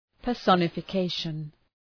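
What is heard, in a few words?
"personification", English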